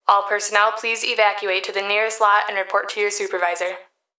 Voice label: Emergency Human Female Voice Plant Security Dispatch All Personnel Please Evacuate To The